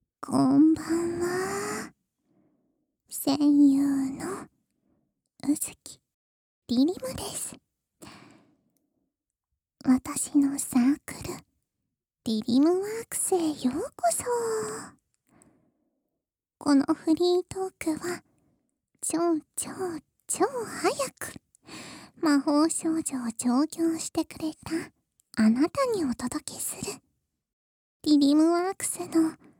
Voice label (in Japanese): 耳元ささやきフリートーク; 魔法少女W催眠アプリ調教